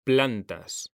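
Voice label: "plantas", Spanish, male; mekanta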